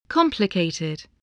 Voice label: "complicated", english